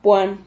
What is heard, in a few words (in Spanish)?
"puan", Silabas